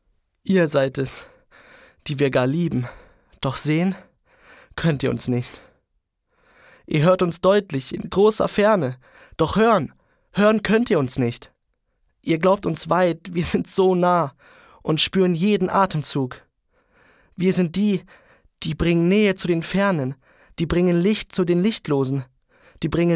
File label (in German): Traurig, jungen